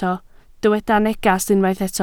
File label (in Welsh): "neges" Welsh